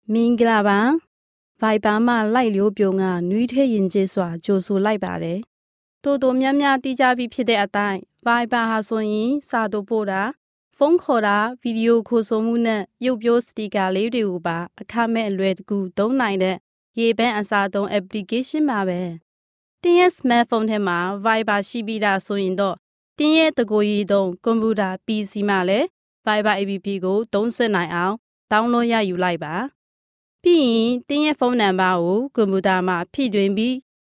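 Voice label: Burmese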